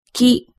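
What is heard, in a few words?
"ki", Japanese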